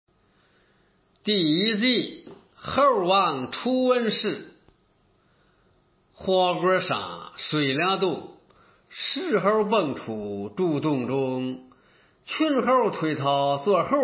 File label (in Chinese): 衡水饶阳话